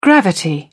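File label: "gravity", British English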